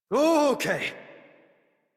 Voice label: Horse Stop Adult; Warframe; Duviri